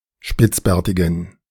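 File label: "spitzbärtigen", German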